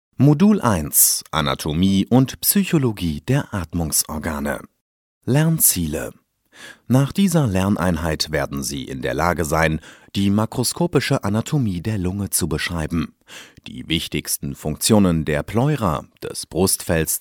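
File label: German, male, e-learning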